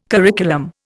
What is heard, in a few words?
"curriculum", Indian